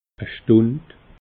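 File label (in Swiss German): "stund", Alsatian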